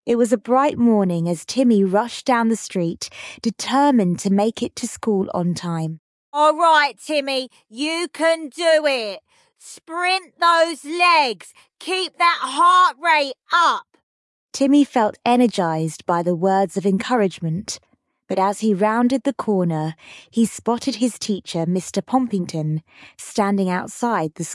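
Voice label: female British story; A Diller A Dollar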